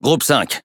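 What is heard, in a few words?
French Speech Pilots Fighter; World Of Warships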